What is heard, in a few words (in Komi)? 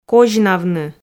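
"козьнавны", Komi, female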